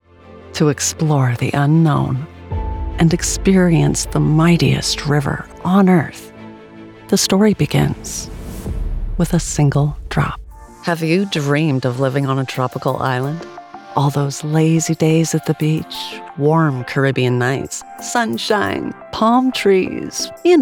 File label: Narration; Demo Reel